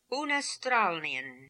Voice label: "Oonastralnian", pronounciations; Fourth Way; Beelzebub